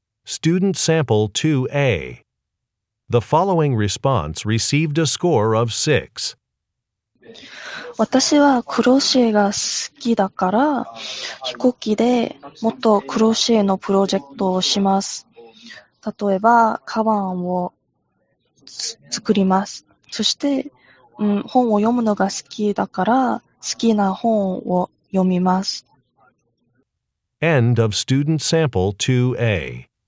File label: japanese conversation